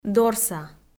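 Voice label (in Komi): "дорса", Komi, female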